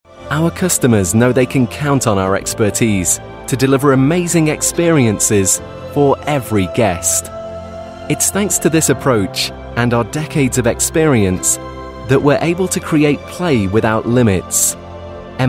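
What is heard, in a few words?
corporate narration, Male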